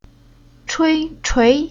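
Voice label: "Chui", Chinese syllable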